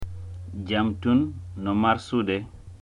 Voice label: dialogue utterance